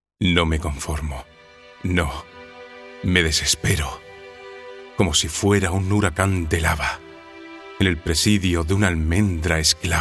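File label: Spanish Spain male voice over, character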